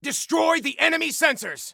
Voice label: Attack Sensors, Soldier Male; Soldier Male 01

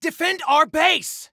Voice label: Defend Base, Soldier Male; Soldier Male 01

